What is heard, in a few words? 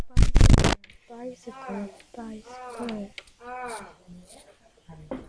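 "bicycle", spoken word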